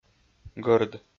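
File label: "гӧрд", Komi-Zyrian, male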